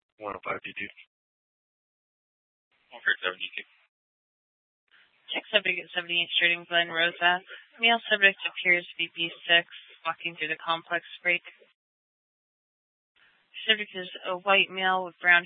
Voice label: PD Dispatch